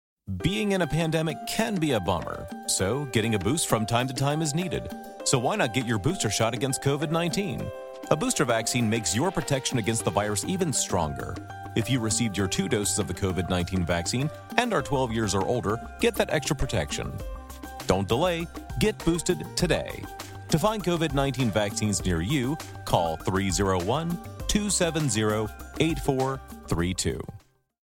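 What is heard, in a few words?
MALE VOICE American English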